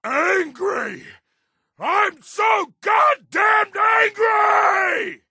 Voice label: angry